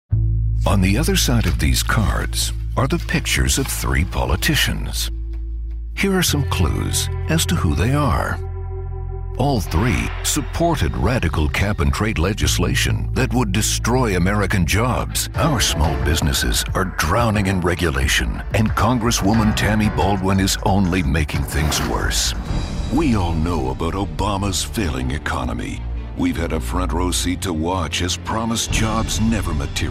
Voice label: men political; Warmth with authority.

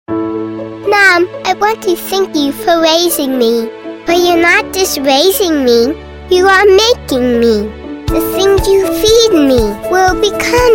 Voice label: kids commercial; Adorable and sweet.

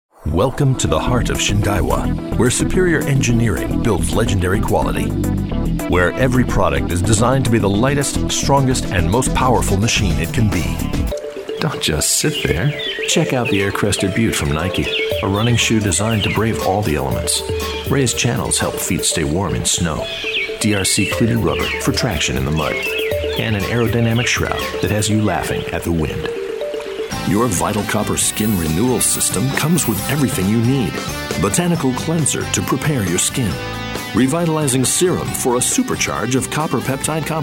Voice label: men elearning; Warm baritone, strong and gritty. Characters, dialects.

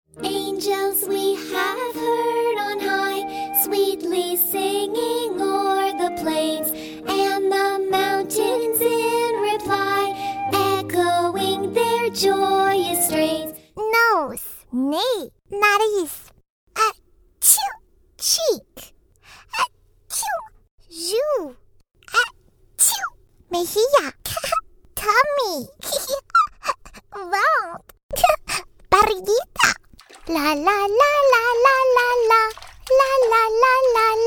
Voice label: women other childrens toy voices; Energetic, young, warm, quirky, French, fast talker.

